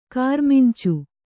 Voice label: "ಕಾರಮಿಂಚು", kannada